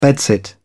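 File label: "bedsit", English, British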